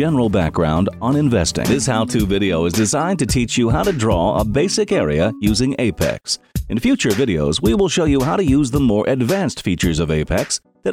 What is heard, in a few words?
E Learning